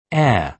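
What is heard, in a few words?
"air", British English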